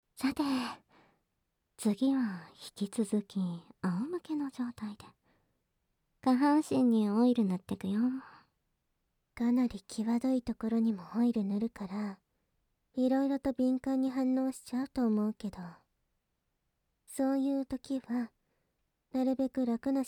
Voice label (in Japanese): ゾクゾク下半身オイルマッサージ (SE無し), ASMR